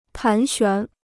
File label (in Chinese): "盘旋", Chinese